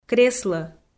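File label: "креслӧ", Komi, female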